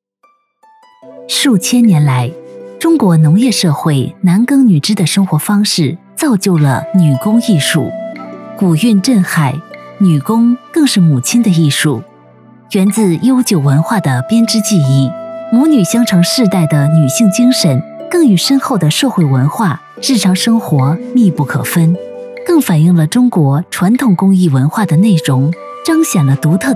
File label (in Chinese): 女 纪录片; 镇海女红